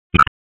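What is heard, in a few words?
Raleigh Police North District Tactical; p25; wake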